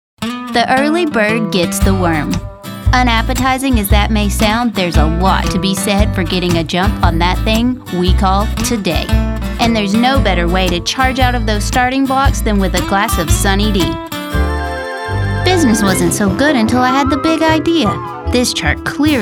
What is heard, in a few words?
commercial